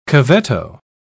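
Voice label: "cavetto", British English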